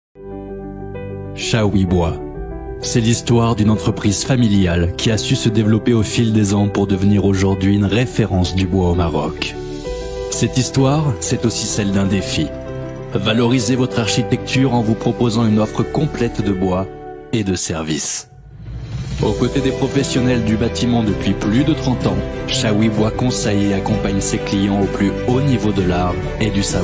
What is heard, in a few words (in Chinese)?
法语男, 外语, 小语种, 科技